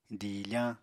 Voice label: "diifañ", apatani

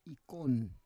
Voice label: "ikon", Roon

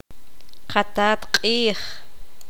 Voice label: poqomam; sol

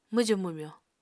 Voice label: "mwjù-mwmyo", Apatani

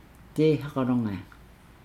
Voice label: "be quiet", Nukuoro

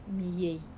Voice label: "mei", interesting, Wamesa

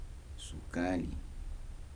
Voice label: "sugar", Kibembe

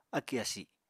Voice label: "akì asi", Apatani

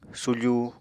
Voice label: "sufu", Apatani

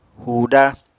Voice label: "huuda", Apatani

